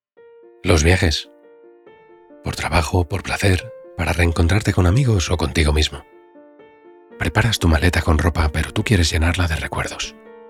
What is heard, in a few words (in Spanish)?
suave